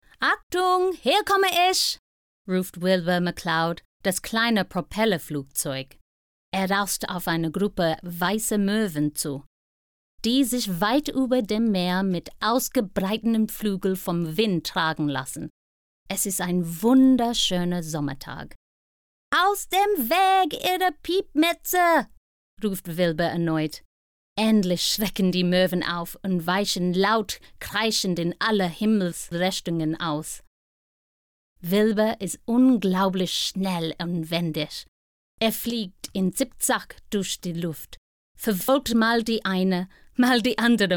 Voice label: german storytelling; LOFT VOICES